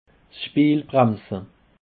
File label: "bremse", alsace